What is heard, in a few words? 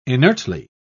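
"inertly", British English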